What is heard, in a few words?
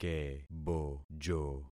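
"kebojo", concatenated syllables; Affixing experiment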